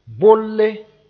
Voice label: "bolle", moore